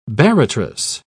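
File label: "barratrous", British English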